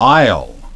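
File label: "aisle", English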